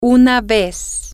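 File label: once one time, Spanish, female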